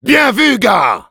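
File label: nice shot, French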